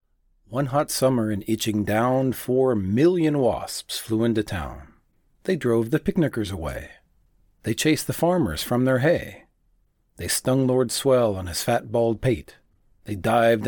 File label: foreigner